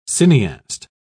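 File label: "cineaste", British English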